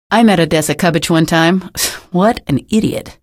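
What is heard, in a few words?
citizen remarks, female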